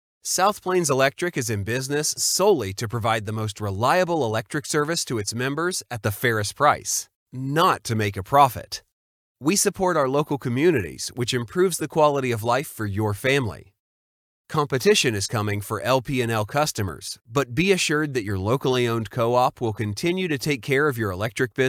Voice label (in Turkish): Erkek, İngilizce (Amerikan), Kurumsal, Reklam, Eğitim